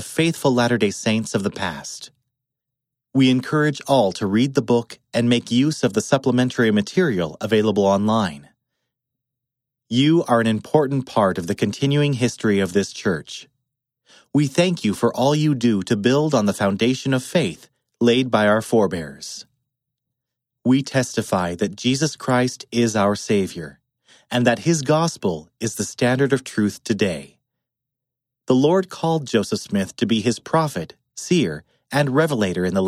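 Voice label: a message from the first presidency male voice, english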